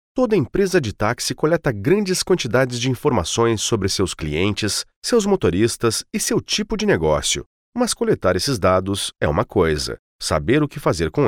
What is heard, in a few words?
brazilian portuguese corporate